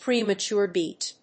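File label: "premature beat", English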